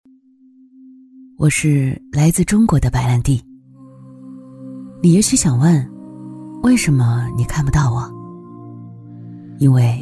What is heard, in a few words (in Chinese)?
女 纪录片; 中国白兰地张裕